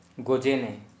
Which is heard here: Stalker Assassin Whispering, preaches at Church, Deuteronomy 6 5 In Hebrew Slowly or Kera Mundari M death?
Kera Mundari M death